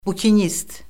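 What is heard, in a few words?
"bukinist", Azerbaijani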